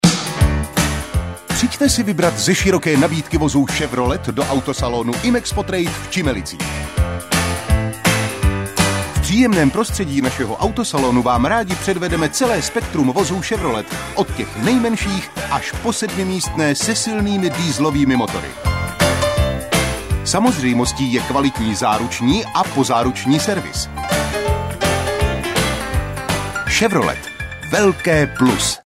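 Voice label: Male Czech commercial mix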